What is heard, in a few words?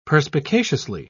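"perspicaciously", English, British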